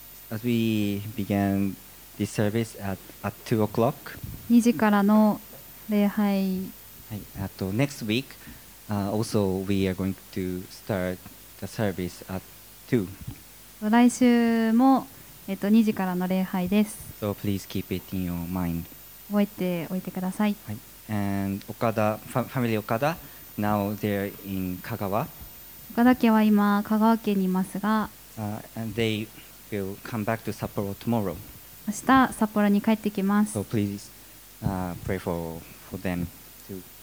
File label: announcement; sunday message 2022